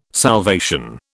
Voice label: "salvation", British